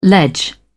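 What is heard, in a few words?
"ledge", British English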